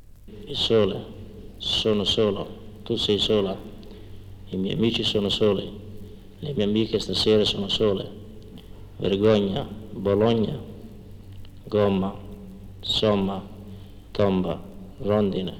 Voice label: O chiuso Bari

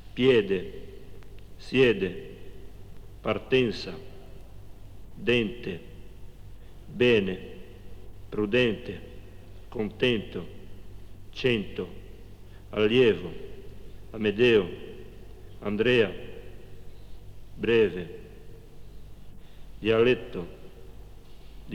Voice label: E Aperto Genova

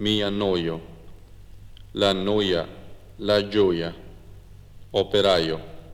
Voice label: Semivocale I Cagliari

